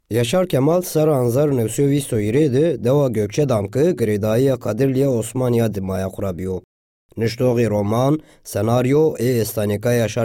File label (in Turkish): Kurtce Erkek Zazaca; kurtce seslendirme; erkek sesler